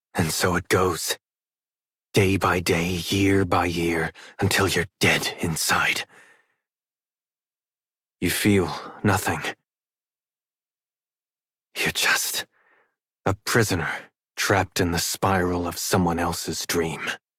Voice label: Adult, en, Dialog